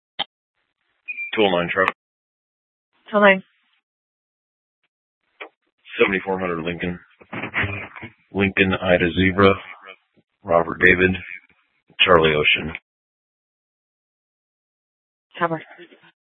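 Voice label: police dispatch, Scottsdale PD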